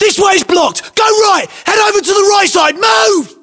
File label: voiceovers, US; english